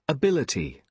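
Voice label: "ability", British English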